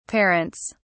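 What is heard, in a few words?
"parents", British English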